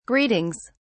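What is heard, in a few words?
"greetings", British English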